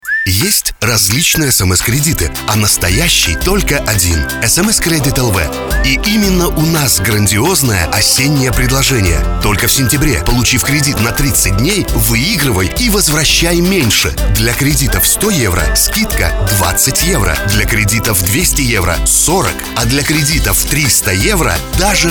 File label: TVC RU; SMScredit